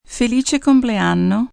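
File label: Birthday Italian F, female, Italian; Occasion, event; Holiday; Voice Box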